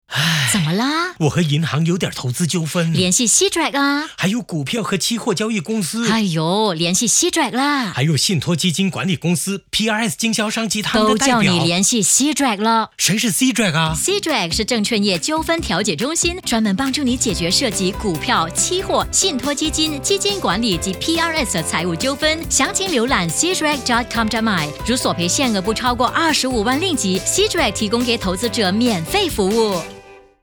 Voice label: Mandarin